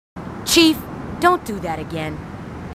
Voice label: marines female